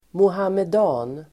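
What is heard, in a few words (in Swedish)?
"muhammedan", Swedish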